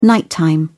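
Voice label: "nighttime", English, British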